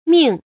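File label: "ming", pinyin, fourth tone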